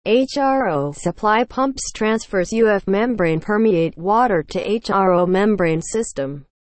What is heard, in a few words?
english, seawater desalination; watercompany